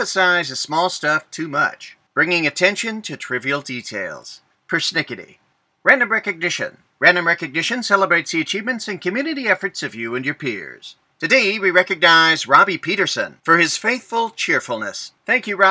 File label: Announcements